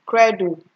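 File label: "credo", Nigerian Pidgin, female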